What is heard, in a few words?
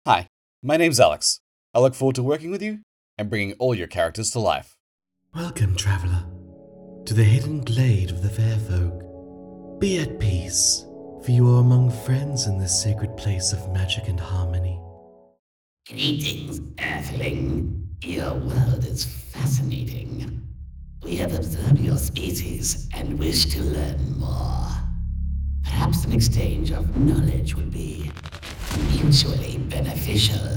Video Game Animation, Male